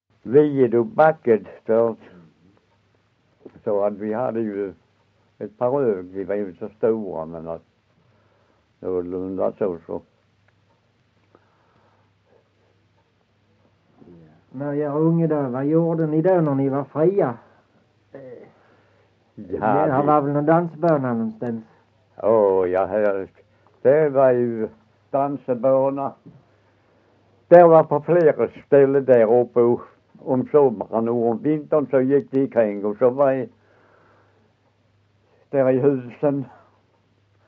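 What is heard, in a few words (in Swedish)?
Össjö Skåne, dialekter; Folkminnen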